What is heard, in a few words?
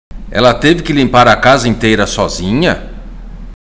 Portuguese Listening; Portuguese Test